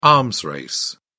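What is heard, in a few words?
"arms race", British English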